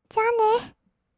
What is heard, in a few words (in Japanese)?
"じゃあね", kawaii voice; 挨拶